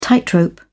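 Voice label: "tightrope", British English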